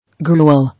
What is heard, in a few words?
"Gruel", English